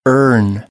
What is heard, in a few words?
"earn", American; English; ɝː - ɜː